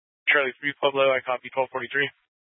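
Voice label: Dispatch, Scanner audio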